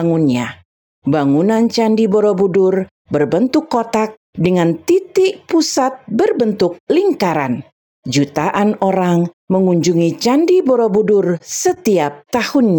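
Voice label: narration, Indonesia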